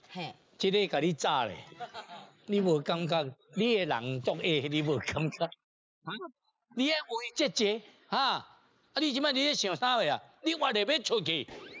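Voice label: traditional male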